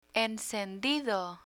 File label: "encendido", Spanish